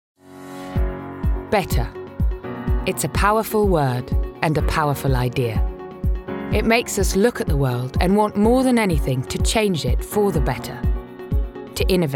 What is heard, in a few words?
Corporate